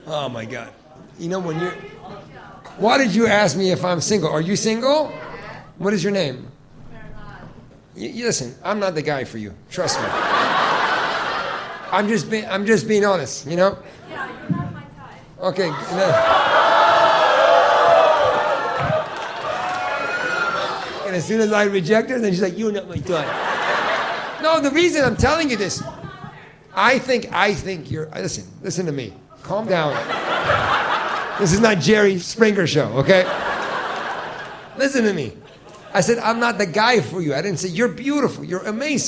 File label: comedy